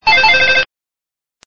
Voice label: game voice

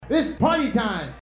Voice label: Speaking Man

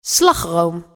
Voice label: Woman Beep

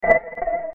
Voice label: Character Voice

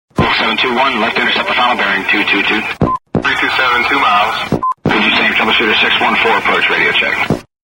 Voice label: Aircraft Radio

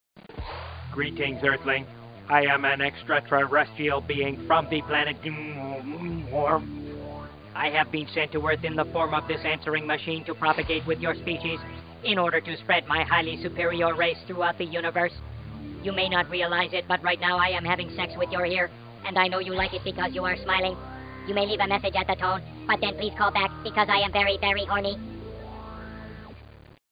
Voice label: Alien Please Leave Your Message

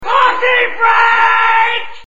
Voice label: Shouting Man

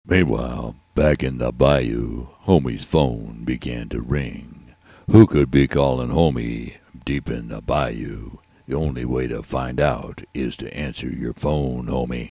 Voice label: Speaking Man

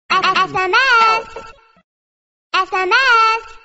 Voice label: Sms Received Baby Voice

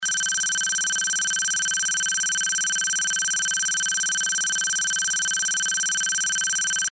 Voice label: The Slim Phone Voice

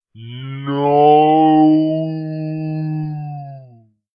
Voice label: Nooooo No Slowmotion; Vtkproductions Com

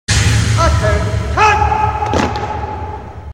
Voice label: Computer Command Voice

